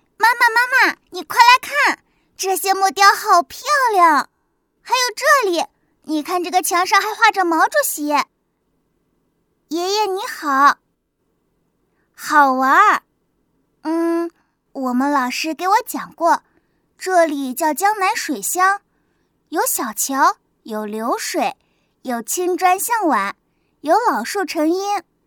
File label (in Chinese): 广播剧小女孩